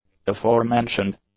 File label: "aforementioned", English; adjectives